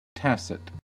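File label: "tacit", US English; pronunciation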